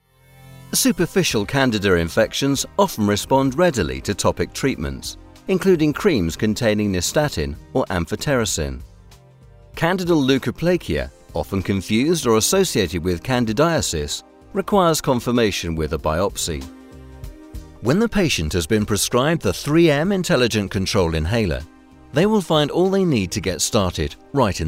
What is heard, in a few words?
Medical Pharma, voiceovers